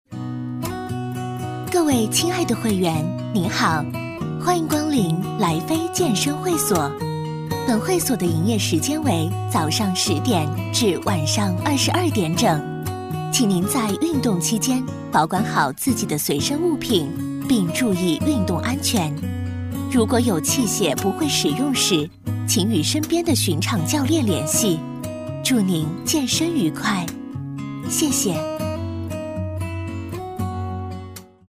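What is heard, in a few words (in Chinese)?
女 广播提示【店内播】; 健身会所店内播; 甜美亲切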